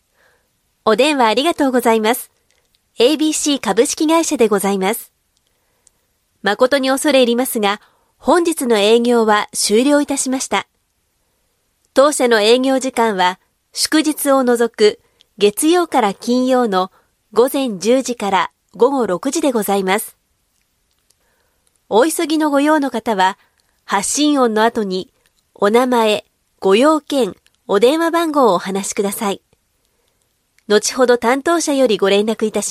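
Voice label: Female Japanese IVR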